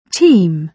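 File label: "team", British English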